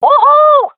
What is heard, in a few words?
cheer, swat fem; Counter Strike Model Pack; CS:GO